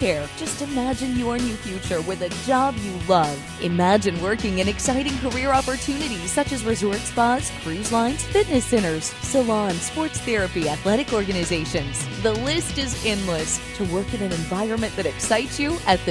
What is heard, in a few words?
RADIO Daytona College